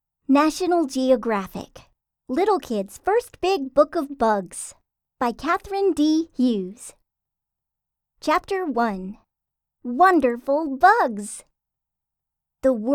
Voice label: Nonfiction Childrens Audiobook